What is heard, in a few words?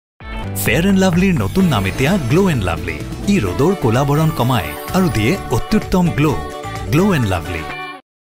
TVC